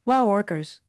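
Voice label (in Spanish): Voice Acting es F, Spanish, female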